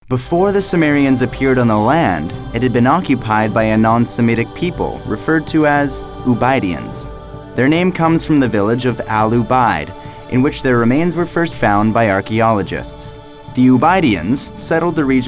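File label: narration, voiceovers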